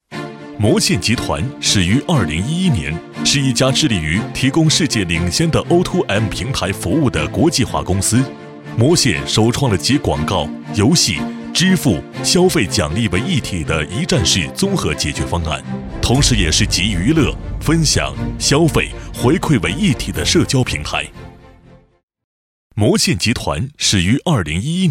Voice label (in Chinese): 男60号 专题 干练; 国语男声配音; 交广主播; 魔线集团